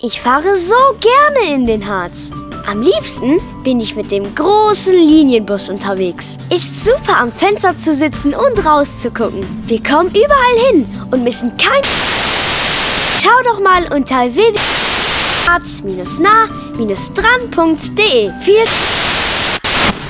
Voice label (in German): Radiospot; urlaub im nationalpark; ZVSN